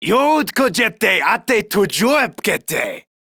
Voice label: Attack Male, Battle Barks; en; Modular Corpus

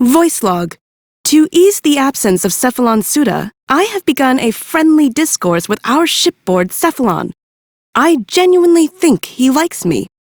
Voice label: Idle, Female; en; Warframe; Railjack Crew; Cephalon Suda

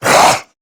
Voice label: Threatened, Male

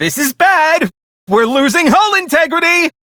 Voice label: Ship Low, Male; Dialog; Warframe; Railjack Crew; Perrin Sequence

